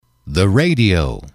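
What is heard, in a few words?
"the radio", English, male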